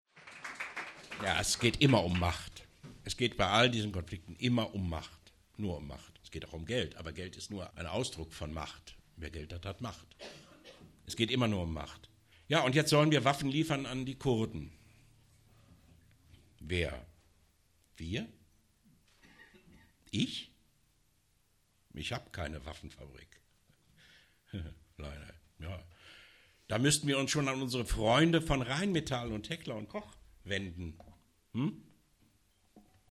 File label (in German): ansage; abgesang